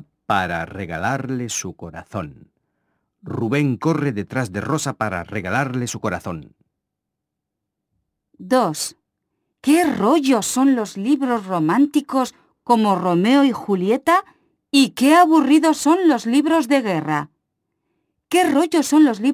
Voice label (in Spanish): trabalengua r rr; pronuncia